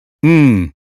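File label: "sudden", American English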